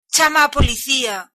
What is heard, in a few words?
police, galician